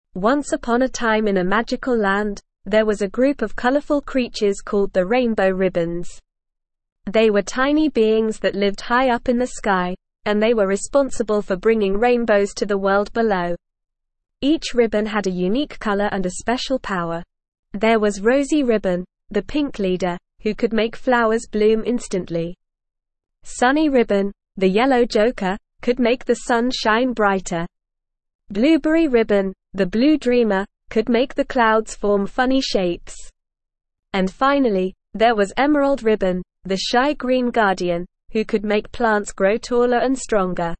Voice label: ESL Short Stories for Kids Advanced NORMAL Reading; Rainbow Ribbons in the Sky